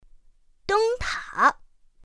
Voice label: "dēngtǎ", pinyin; pinyin2; pinyinji